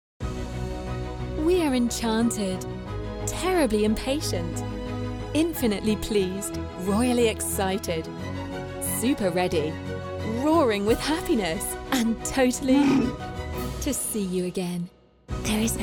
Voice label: ANG Delighted; Disneyland Reopening